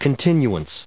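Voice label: "continuance", US English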